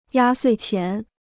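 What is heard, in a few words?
"压岁钱", Chinese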